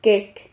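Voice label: "quec", Spanish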